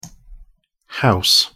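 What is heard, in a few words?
"house" pronunciation English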